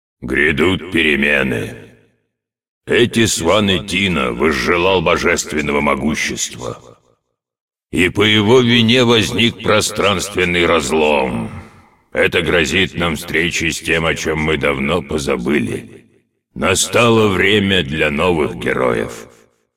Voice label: dialog, ru; lineage2